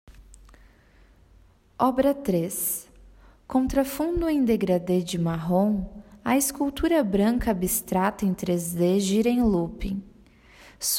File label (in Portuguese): Audiodescricao; Exposição; Galeria Virtual